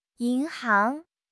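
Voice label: "yin2 hang2", Chinese; HSK; HSK3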